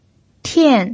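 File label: "tian", Mandarin Chinese pinyin, fourth tone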